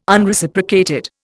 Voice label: "Unreciprocated", Indian

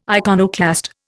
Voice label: "iconoclast", Indian

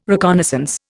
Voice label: "reconnaissance", Indian

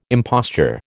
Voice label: "imposture", American

